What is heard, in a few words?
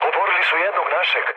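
Serbian aircraft destroyed mood low, speech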